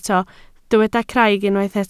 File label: "craig", Welsh